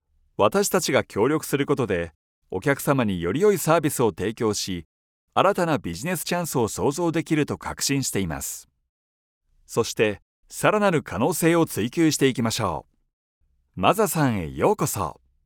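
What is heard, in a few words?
Japanese, male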